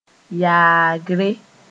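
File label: "yaagre", Mooré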